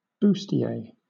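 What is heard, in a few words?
"bustier", British English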